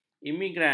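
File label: "imigran", Malay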